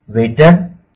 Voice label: Waiter, hindi